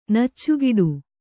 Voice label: "ನೆಚ್ಚುಗೆಡು", Kannada